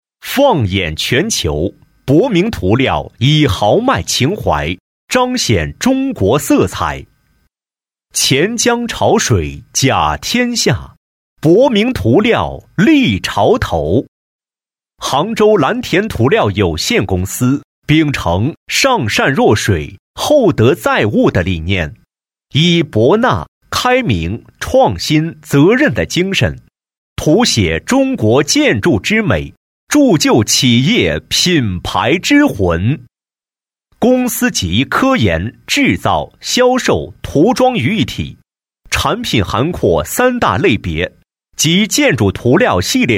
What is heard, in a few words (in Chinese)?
男 专题 企业专题 涂料; 杭州蓝田涂料